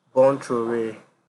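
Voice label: "born throway", Nigerian Pidgin, male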